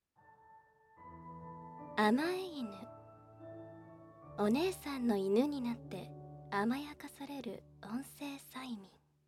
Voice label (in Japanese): タイトルコール